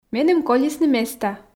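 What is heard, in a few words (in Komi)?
меным колисны места, Komi, female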